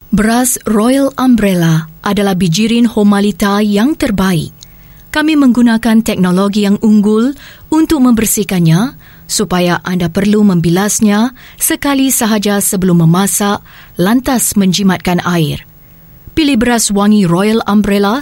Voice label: Malay Female